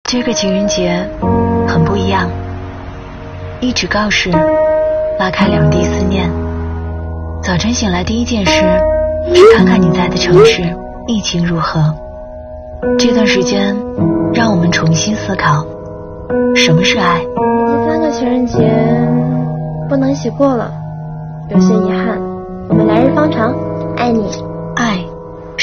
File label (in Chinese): 女, 独白